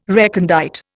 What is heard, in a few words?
"recondite", Indian